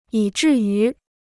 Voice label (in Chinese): "以至于", Chinese